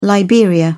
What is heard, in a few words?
"Liberia", spoken; africa